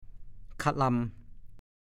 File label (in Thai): "ค่ะลาย", ภาษาโคราช; ยกครู ทะลาย ก็พูด